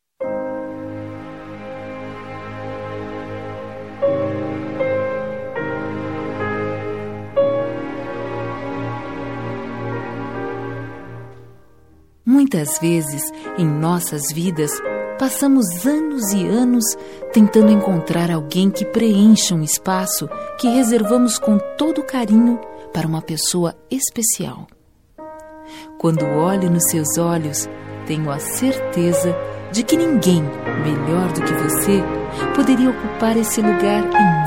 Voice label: Feliz Natal Romantico

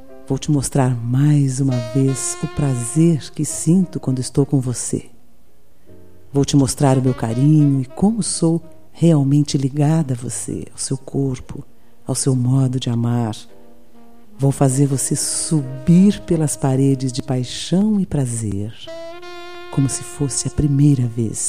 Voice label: Namorados pra Namoro Erótico F

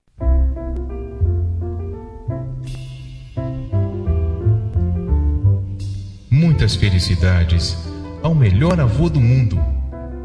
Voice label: Aniv. de Avô M

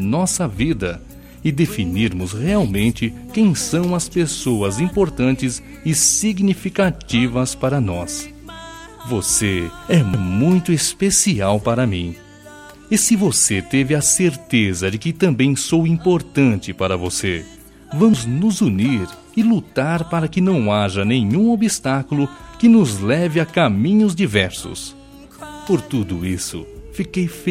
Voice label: Amizade Aceito Suas Desculpas, M

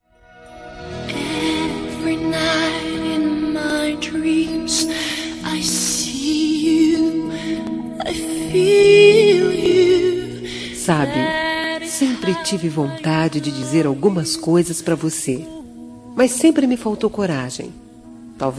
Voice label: Romântica Eu Te Amo

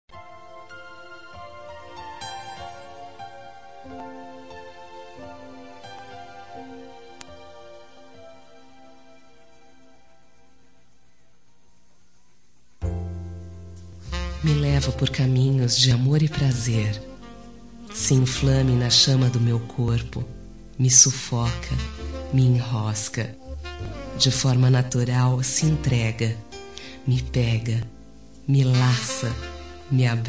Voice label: Romântica Picante, F

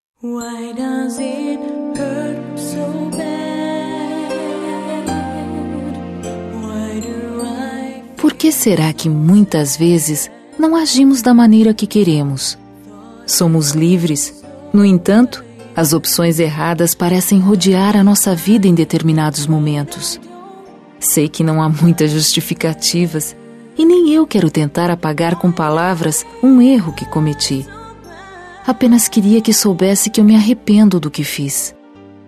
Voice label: Namorados Com Pedido de Desculpas F

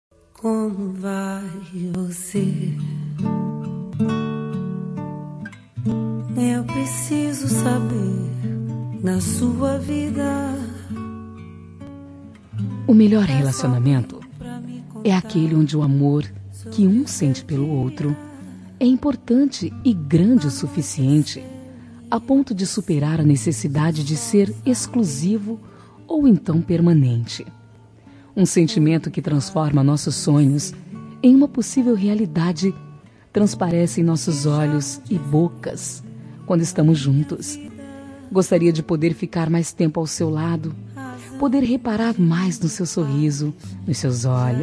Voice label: Romântica pra Amante, F

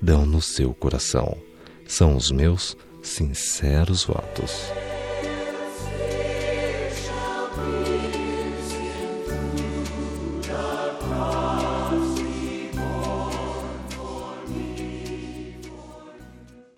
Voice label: Feliz Natal Com Desculpas, M

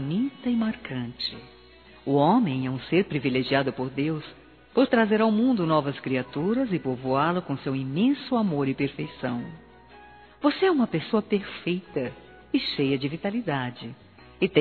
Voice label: Aniv. de Genro, F

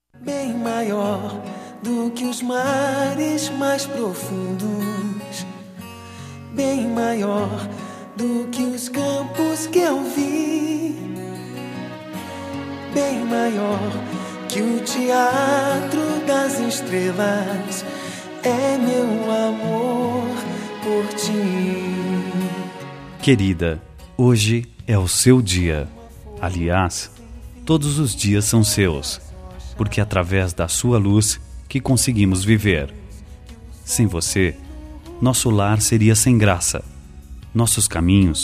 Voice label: Dia das Maes pra Esposa, M

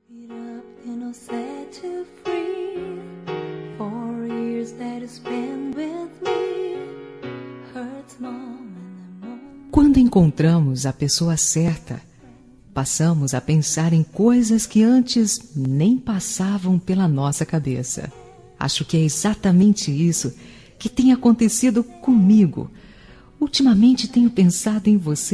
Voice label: Romântica Com Pedido de Noivado

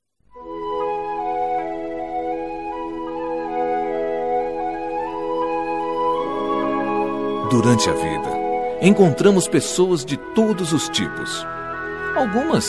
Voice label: Feliz Natal pra Amizade Que Ta Distante, M

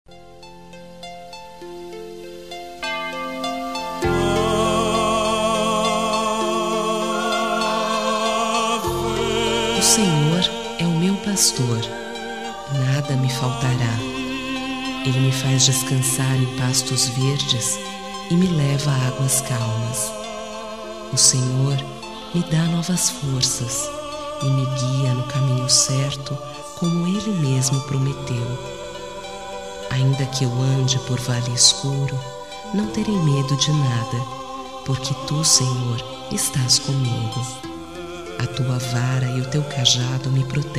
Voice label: Relig Mensagem Social, F

